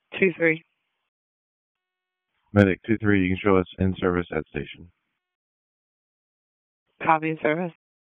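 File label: EMS DISP, radio; wake; p25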